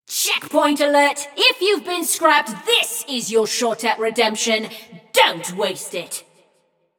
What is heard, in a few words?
Checkpoint Opened, Announcer; Flux; Scraprun